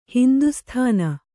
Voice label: "ಹಿಂದೂಸ್ಥಾನ", Kannada